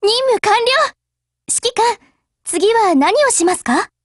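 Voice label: operation over, Japanese; GF Alarm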